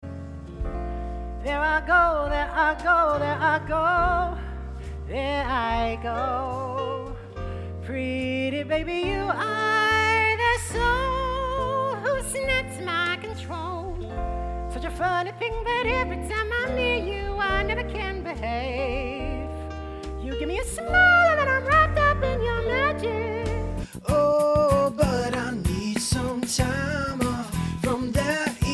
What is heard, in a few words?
male english australian and italian multiple accents singing compilation